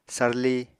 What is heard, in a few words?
"sarli", Apatani